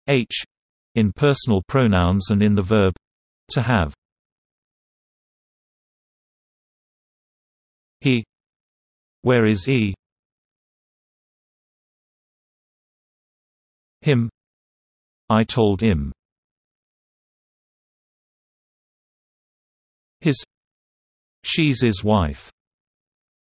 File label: h UK, Englisch richtig aussprechen; der buchstabe h